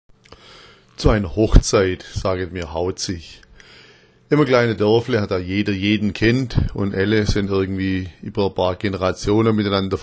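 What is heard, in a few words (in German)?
hauzich, schwäbisch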